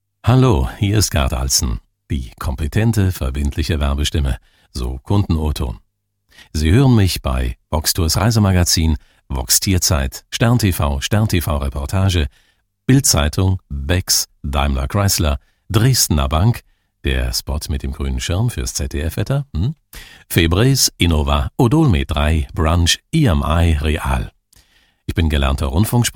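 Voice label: German, male, corporate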